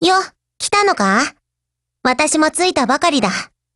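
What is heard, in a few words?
HELLO, Japanese; GF Alarm; AA12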